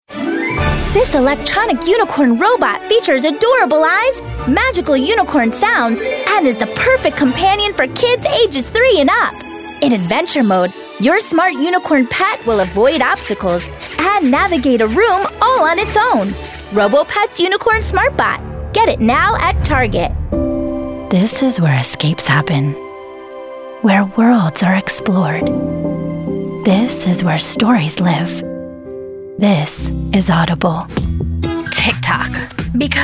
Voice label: Commercial; Sounds Like Sunshine Voiceovers